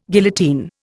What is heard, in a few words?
"guillotine", Indian